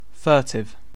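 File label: "furtive", British English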